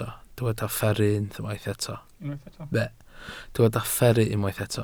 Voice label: "fferru", Welsh